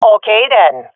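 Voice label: round won, swat female; vo; agents; Source Model Packs; Counter Strike Model Pack; CS2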